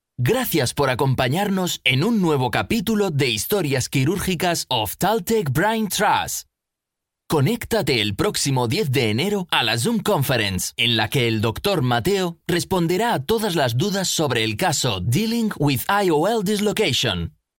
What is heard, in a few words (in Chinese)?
西班牙语男 外语 小语种